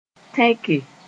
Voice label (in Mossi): "tẽke", Moore